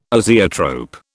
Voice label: "azeotrope", British